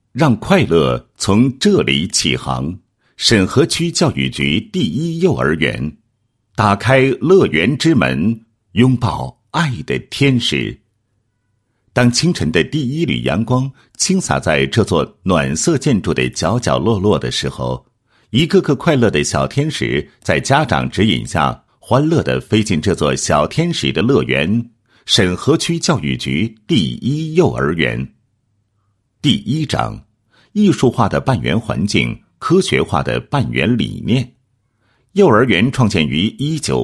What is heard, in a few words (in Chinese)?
男 学校专题 宣传介绍; 沈河区教育局第一幼儿园